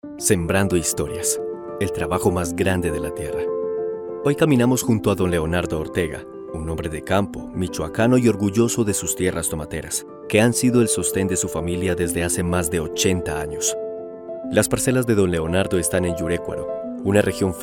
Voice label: Spanish Latin American narration